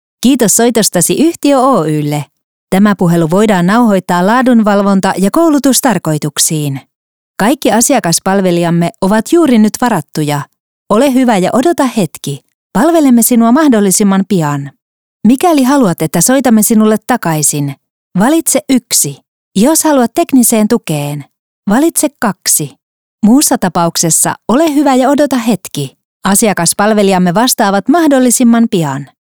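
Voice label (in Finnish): Finnish interactive voice response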